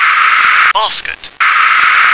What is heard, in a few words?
"basket", English